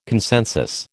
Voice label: "consensus", American